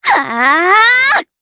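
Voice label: Vox Casting, Japanese; Rodina; kingsraid audio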